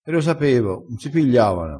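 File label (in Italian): "piglia", dialettale, crespinese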